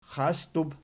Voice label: "xasdub", Archi